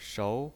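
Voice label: "shou", Mandarin Chinese pinyin, second tone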